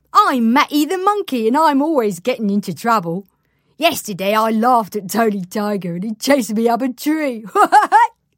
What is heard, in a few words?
Toys Animation Character